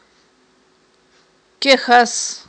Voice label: "ккехьас", Aghul

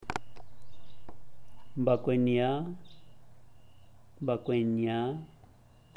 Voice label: "bakuenia", zapoteco teotitlan

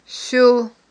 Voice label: "суьл", Aghul

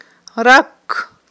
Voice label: "ракк", Aghul

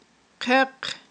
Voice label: "хъвехъ", aghul

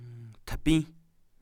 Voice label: "thumb", Kham

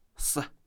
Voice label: "tardy", Kham

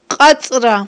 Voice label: "кьацIра", Aghul

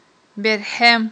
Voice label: "берхIем", Aghul

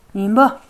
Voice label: sis young bro, kham

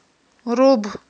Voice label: "руб", Aghul

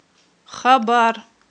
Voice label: "хабар", Aghul

